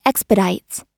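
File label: "expedites", US English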